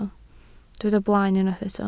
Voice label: "blaen", welsh